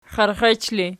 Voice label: xierxech erg, archi